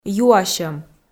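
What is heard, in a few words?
"юасьӧм", Komi, female; kpv audio dict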